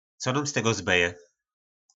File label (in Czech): Co nóm z tego zbeje?, po našimu